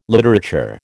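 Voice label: "littérateur", American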